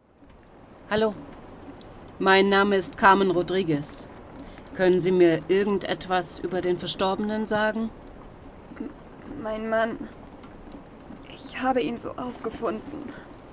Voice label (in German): Hörspiel; Schnee in Chicago